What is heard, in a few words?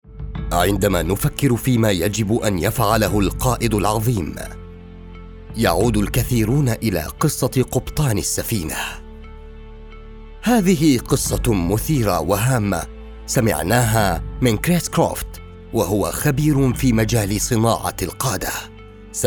Deep Arabic fusha